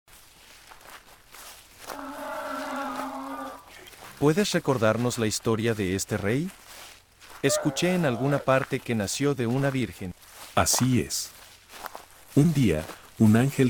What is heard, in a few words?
Scene Spanish, nativity